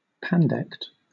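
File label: "pandect", British English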